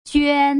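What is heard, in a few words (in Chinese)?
"juan", Mandarin syllable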